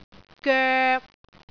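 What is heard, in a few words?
"goek3", Cantonese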